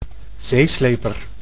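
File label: "zeesleper", Dutch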